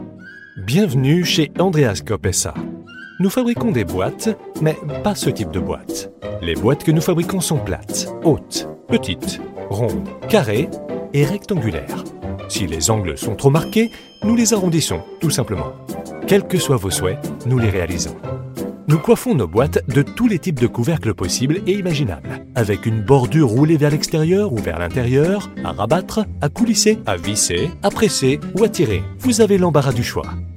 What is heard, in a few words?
french voice acting energetic